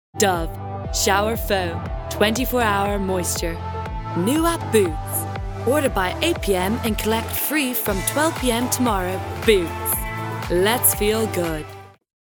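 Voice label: English commercial Irish; GoLocalise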